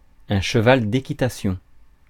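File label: "un cheval d'équitation", French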